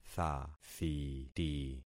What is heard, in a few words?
"thathiti", concat syllables; Affixing experiment